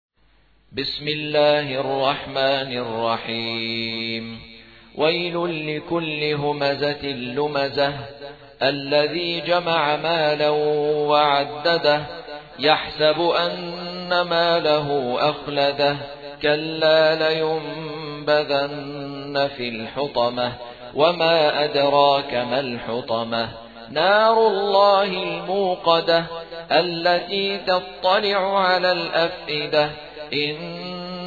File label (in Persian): ترتیل سوره همزه